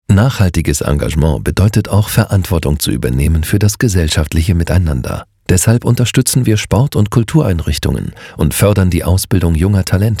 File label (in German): DE Nachhaltigkeit